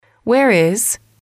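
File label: where is, English, female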